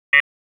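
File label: Raleigh Police North District Tactical; wake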